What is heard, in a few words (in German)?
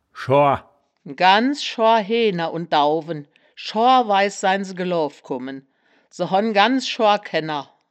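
"schoar", wittlicher woerterbuch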